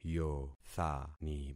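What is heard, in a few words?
"yothani", concatenated syllables; Affixing experiment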